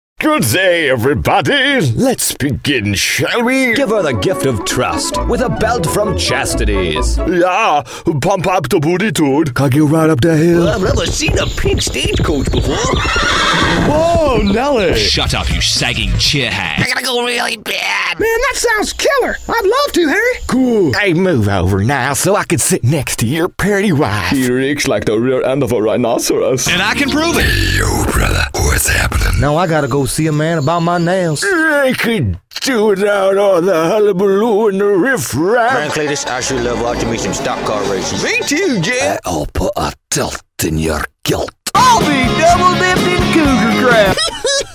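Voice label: animation, Voiceover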